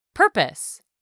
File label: "purpose", english; 3000 words